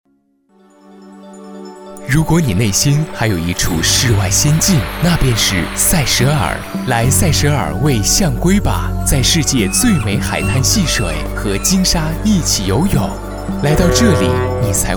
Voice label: 男 国家旅游广告 时尚清新; 塞舌尔

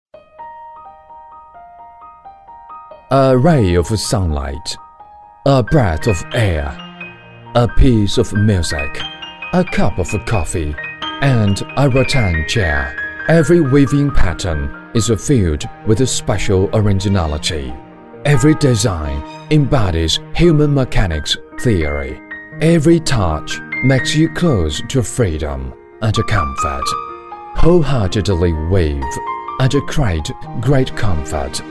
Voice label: 英男 慢生活; 藤佳居

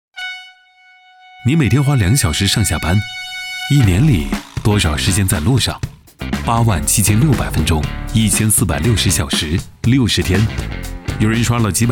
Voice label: 轻快广告; 掌阅

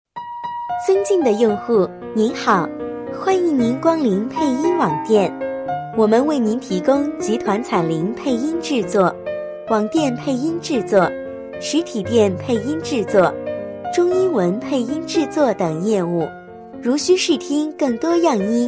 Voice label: 女72 广告 网店宣传, 国语女声配音 年轻

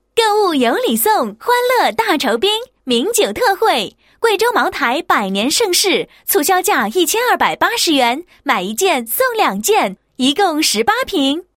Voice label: 女 促销 酒类促销

